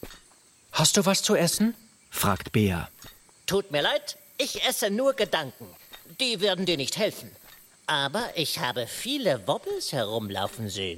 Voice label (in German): Podcast Kinder; Wondery